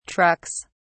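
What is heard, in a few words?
"trucks", British English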